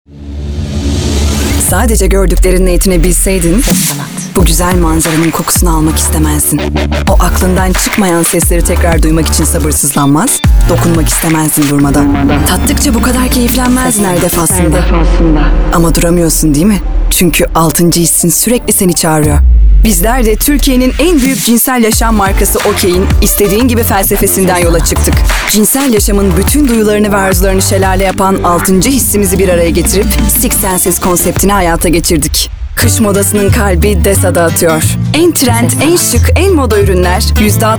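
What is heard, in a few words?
Turkish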